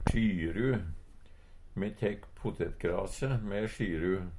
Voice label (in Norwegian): "sjyru", Numedalsmål; Substantiv hokjønn; Me tek potetergrase me sjyru.